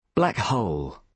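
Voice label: "black hole", British English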